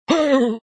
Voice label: Old man Warn; bobtherobber2